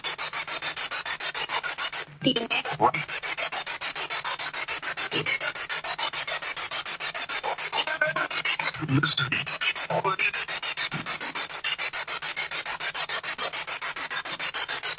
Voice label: Party Room Spirit Box; the brewerie at union station investigation